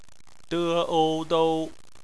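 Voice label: "dou" bopomofo, Chinese; phonetics; bpmf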